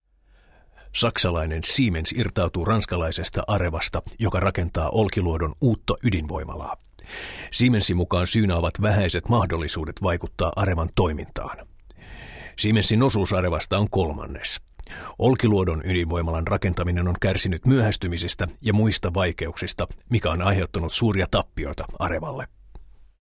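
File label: Finnish corporate; voice over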